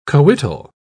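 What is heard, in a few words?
"coital", British English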